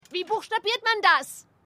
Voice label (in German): Reporterin; Futurama; Hulu